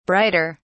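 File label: "brighter", British English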